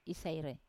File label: "isaire", Wamesa; I smell (am smelly)